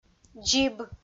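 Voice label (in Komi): "дзиб", Komi, female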